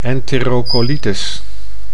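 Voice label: "entereocolitis", Dutch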